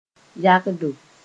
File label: "yagdo", Moore